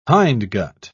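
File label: "hindgut", British English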